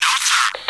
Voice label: radio no